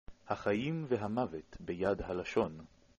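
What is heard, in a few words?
proverb, Hebrew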